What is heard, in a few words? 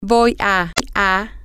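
I am going to, spanish, female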